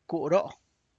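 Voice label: tlacochahuaya, Zapotec; main altar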